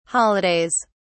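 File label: "holidays", British English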